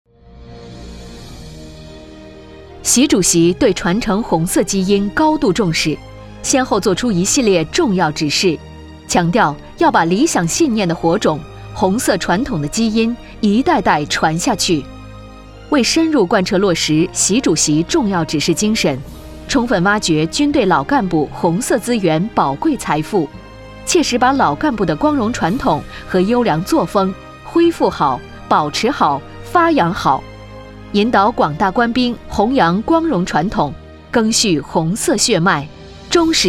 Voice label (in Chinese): 宣传片大气沉稳党政; 传承红色基因